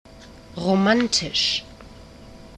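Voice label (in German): "romantisch", German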